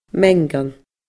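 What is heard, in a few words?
"meangan", Scottish Gaelic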